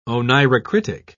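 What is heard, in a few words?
"oneirocritic", English, British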